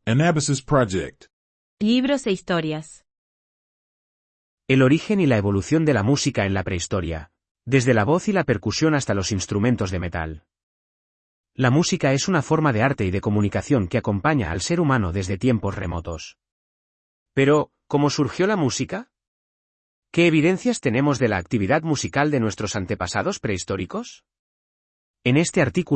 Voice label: voiceover